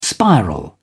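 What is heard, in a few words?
"spiral", British English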